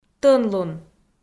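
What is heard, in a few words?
"тӧнлун", Komi, female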